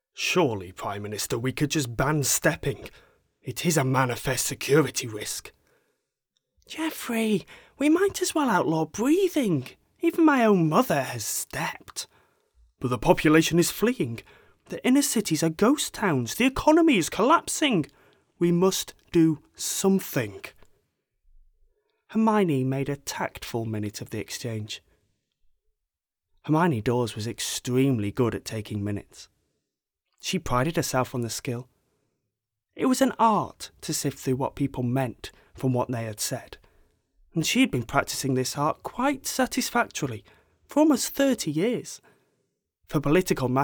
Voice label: Narrative Clip, Voice Reel